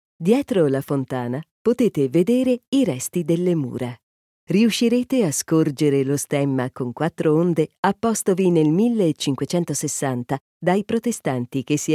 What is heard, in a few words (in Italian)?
ITA Audioguida